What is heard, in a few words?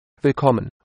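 welcome, German; Willkommen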